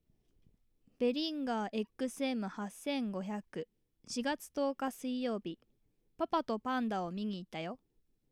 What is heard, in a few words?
BEHRINGER XM8500